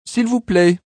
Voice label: Please French, Male; Talking Sound Effects; Sound Effects:Voice; VoiceBox